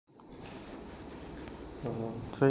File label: voice message